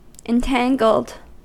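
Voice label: "entangled", US English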